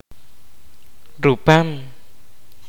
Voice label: kaqchikel; flesh fruit